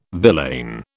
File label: "villain", British